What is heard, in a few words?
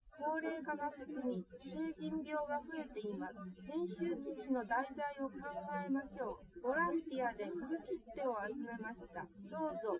test female liverec 250ms 1m src; SiSEC08; SiSEC underdetermined